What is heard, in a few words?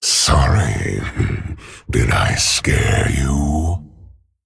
Male Dragon Sorry